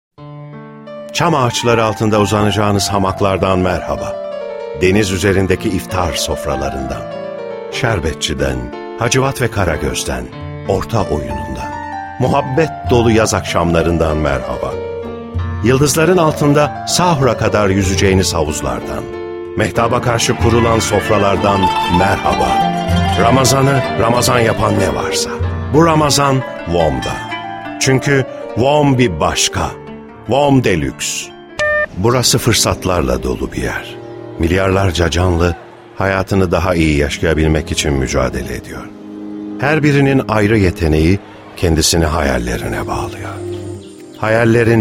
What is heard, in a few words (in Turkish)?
reklam